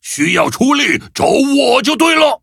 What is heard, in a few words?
level up, zh-hant; Cookie Run